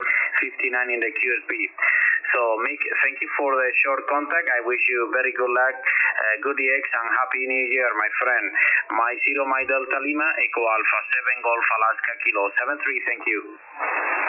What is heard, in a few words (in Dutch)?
zendamateur LSB